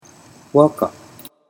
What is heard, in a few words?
"waka", Japanese